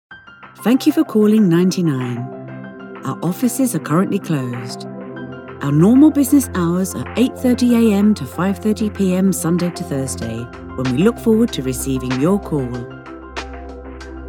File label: IVR with Music; LovelyVoice